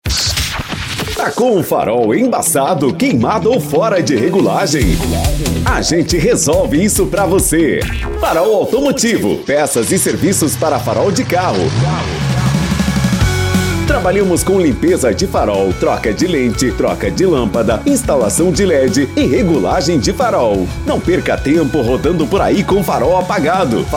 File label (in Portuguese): LOCUTOR